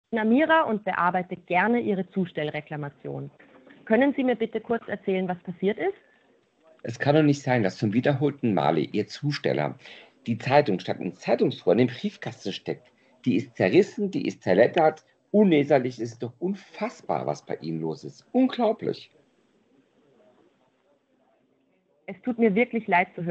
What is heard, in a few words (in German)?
Beispielcall Lieferreklamation Verlag